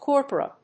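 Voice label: "corpora", English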